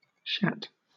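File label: "shat", British English; pronunciations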